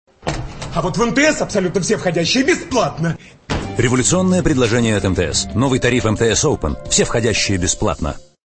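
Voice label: reklama, advert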